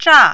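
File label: "zha4", mandarin, falling tone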